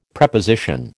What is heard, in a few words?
"Preposition", American